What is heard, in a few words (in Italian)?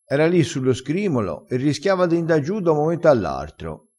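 "scrimolo", vocabolario dialettale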